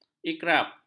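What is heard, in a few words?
"ikrab", Malay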